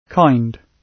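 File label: "kind", British English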